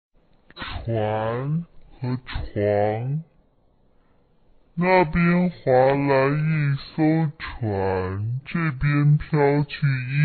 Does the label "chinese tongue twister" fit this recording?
Yes